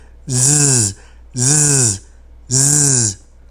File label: Z Voiced